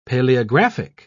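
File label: "paleographic", British English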